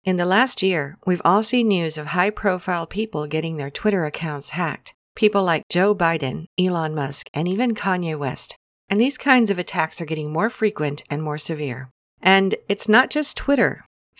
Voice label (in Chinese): 外籍女英 外语 美式英语 画外音简介